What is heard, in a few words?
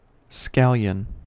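"scallion", American English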